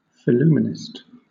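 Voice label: "phillumenist", British English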